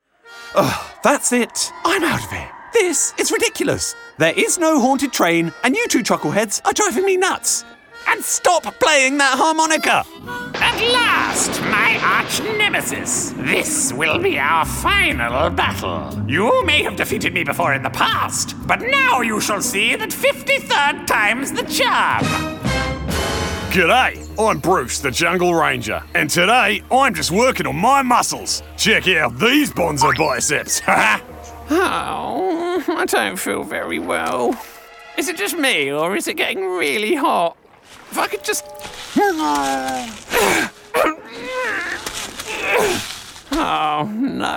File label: Animation